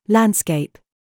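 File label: TRAP, words, British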